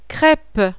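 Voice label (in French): "CREPE", French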